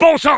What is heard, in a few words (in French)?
Engineer negative vocalization, fr